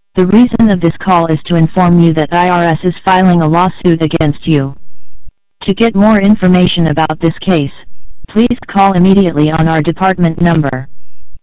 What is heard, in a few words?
voicemail tax scam